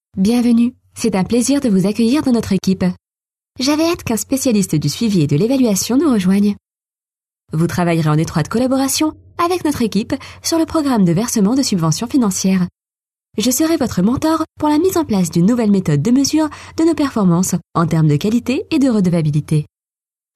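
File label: French, female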